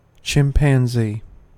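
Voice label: "chimpanzee", American English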